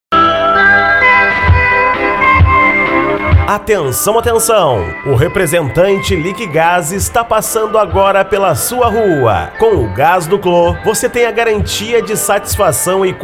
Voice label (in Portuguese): Locutor; Clo Do Gas Florianopolis SC